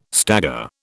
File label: "stagger", British